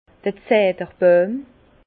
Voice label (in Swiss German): "zederboijm", Bas Rhin; cèdre; vin